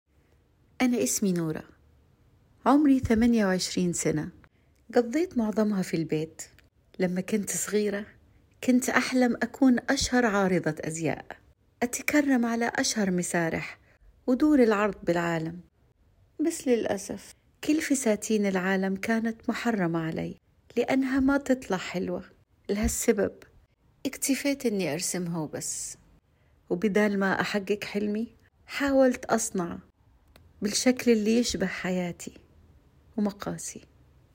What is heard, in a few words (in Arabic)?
أداء درامي باللهجة السعودية